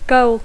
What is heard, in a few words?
"goal", English